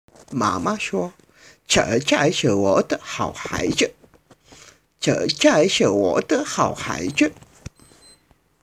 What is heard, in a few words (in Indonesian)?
Percakapan